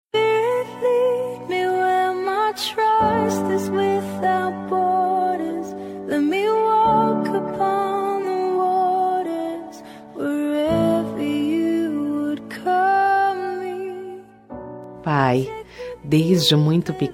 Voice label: dia dos pais feminina; linda voz

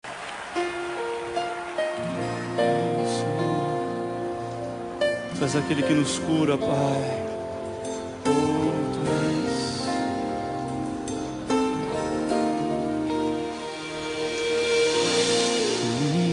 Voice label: evangelica fem; dia das maes para mae evangelica voz feminina

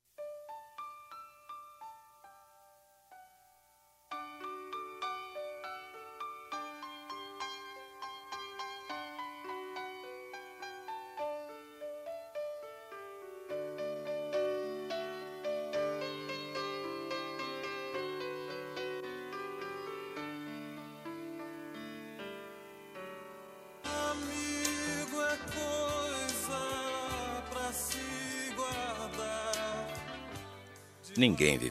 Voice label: dia doa amigoa masc amiga, voz masculina

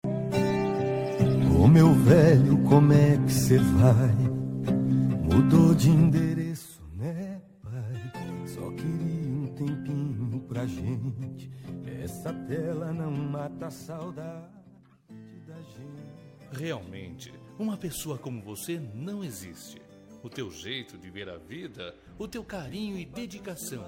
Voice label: masc, Dia dos Pais – Voz Masculina

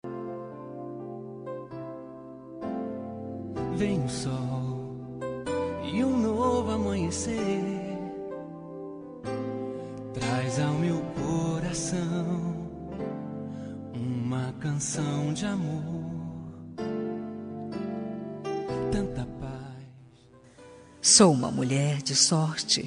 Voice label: dn gospel marido fem, dia dos namorados para marido evangelica voz feminina

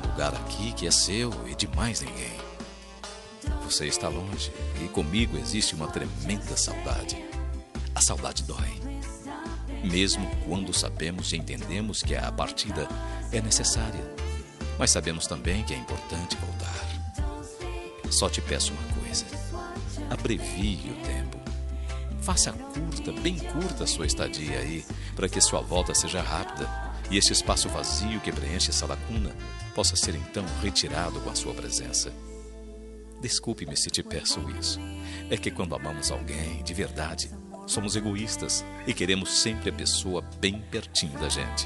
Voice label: rom distante masc, Telemensagem Romântica Distante – Voz Masculina

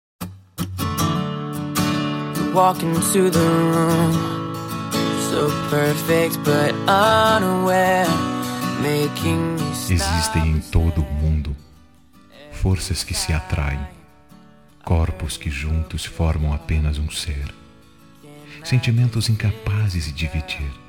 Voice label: M, Telemensagem Romântica para Ex. Voz Masculina

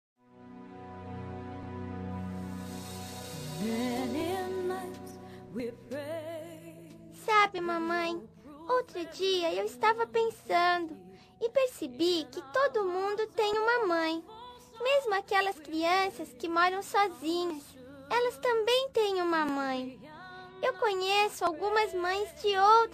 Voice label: voz inf mae fem; dia das maes para minha mae voz feminina infantil

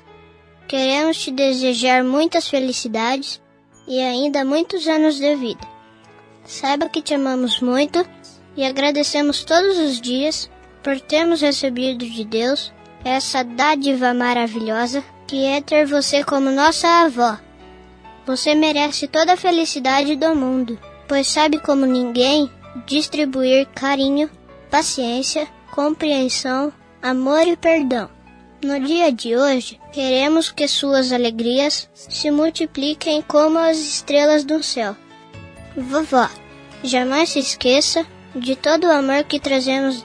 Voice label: voz inf avo masc, Aniversário Voz Infantil – Avó – Voz Masculina

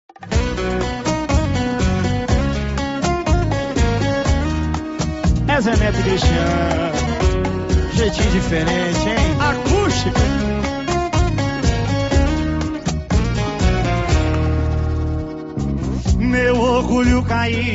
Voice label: conquista, Telemensagem de Conquista – Voz Masculina

